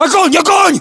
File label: order attack infantry, battlechatter, ru; localized english